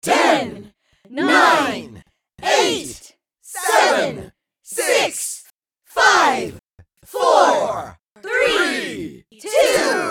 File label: Crowd countdown